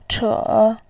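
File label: "che", Mandarin Chinese, third tone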